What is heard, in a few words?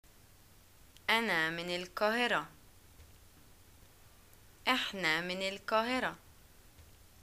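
Egyptian I'm from, arabic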